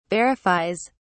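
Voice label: "verifies", British English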